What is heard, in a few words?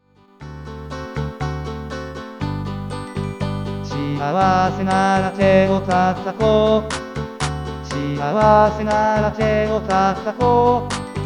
synth